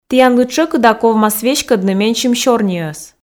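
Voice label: "тіянлы тшӧкыда ковмас веськӧдны менсьым сёрниӧс", Komi, female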